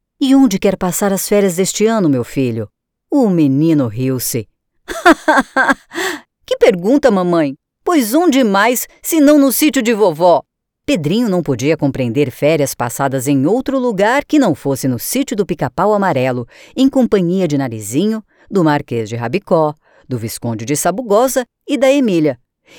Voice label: portuguese brazilian narration